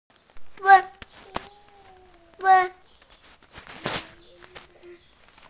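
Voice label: "weng1", Mandarin Chinese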